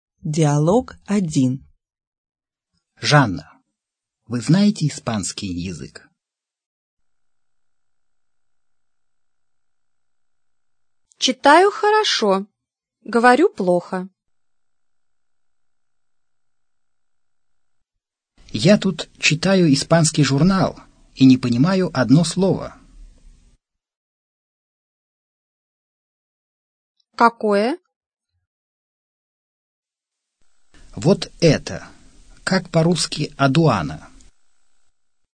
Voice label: dialog, russian